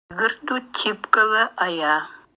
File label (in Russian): ЭВЕНСКИЙ Тигру в тайге хорошо